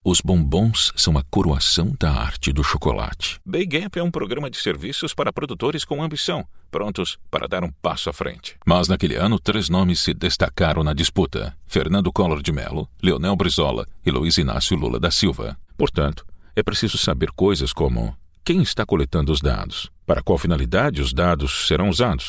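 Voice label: Portuguese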